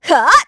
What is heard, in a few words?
Vox Attack kr; heroes; Isolet; kingsraid